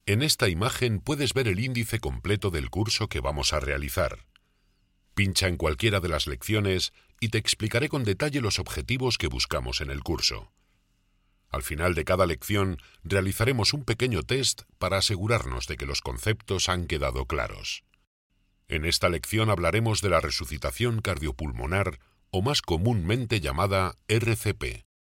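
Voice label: spanish e-learning, Spain; professional Spanish dubbing actor; voice actor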